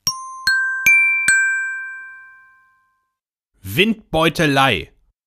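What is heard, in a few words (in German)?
"Windbeutelei", German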